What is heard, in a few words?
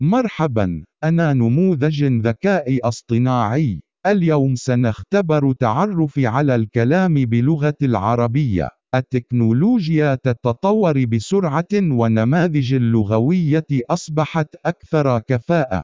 arabic speech